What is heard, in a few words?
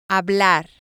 "hablar", spanish